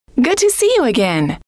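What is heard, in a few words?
good To See You Again, female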